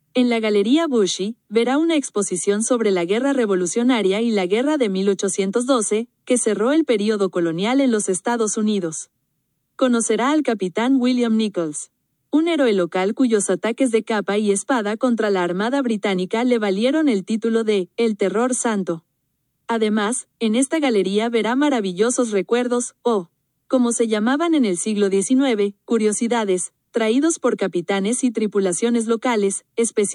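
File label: spanish, audio tour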